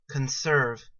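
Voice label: "conserve", US English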